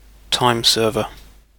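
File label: "timeserver", British English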